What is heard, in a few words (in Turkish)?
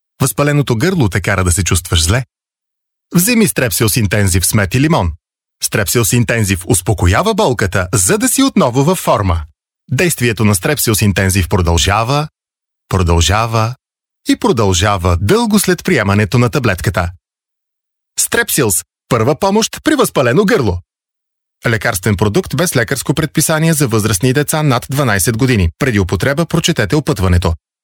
Bulgarca Erkek; seslendirme